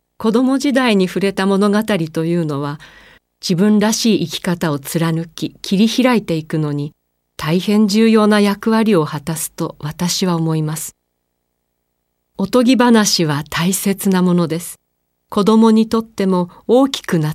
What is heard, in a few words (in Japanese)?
読書推奨ナレ